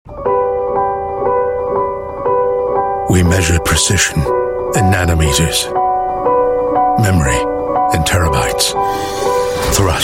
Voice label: Asian English; WeAreOneForce RSAF